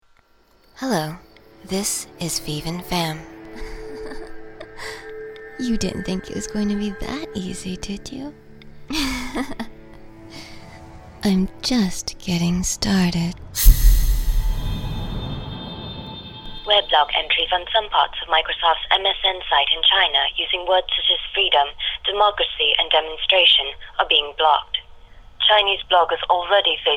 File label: character VO